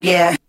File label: "yeah", group of women